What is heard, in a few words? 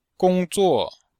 "gong1 zuo4", chinois; Cours-de-Chinois